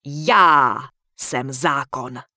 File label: Human Female Warrior NPC Greeting; wowpreklad; czwow